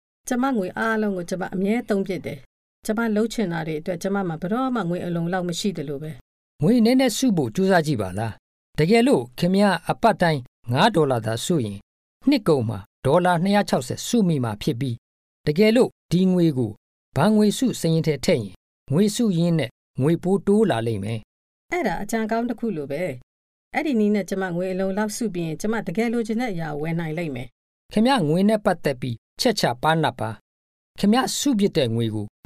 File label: saving money burmese